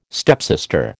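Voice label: "stepsister", American English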